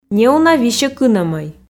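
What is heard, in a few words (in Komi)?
неуна висьӧ кынӧмӧй, Komi, female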